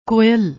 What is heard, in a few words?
"koyal", Punjabi; ਕੋਇਲ